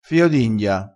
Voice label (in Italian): "fio d india", crespinese